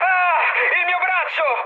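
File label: Italian damaged pilot mood med, speech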